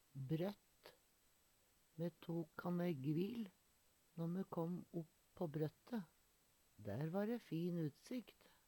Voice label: "brøtt", Numedalsmål

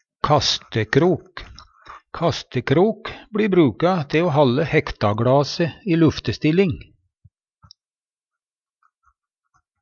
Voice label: kastekrok, Numedalsmål

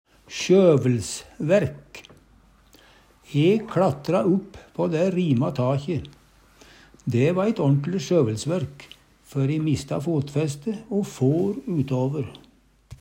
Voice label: "sjøvelsværk", Numedalsmål; E kLatra upp på dæ rima takje. Dæ va eit årntle sjøvelsværk

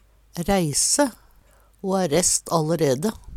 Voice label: "reise", Numedalsmål; Ho ha rest allerede.

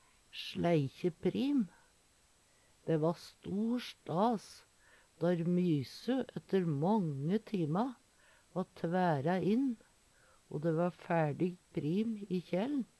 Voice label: sleikje prim, Numedalsmål

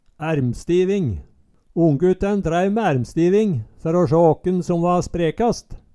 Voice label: "ærmstiving", Numedalsmål

